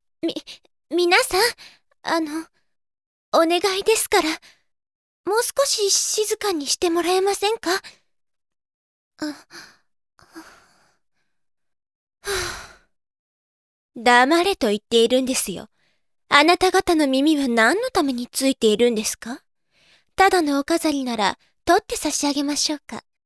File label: girl